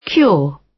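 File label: "cure", British English; Time, history; Thời gian, lịch sử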